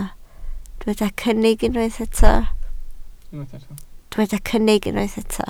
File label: "cynnig", Welsh